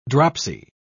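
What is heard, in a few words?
"dropsy", British English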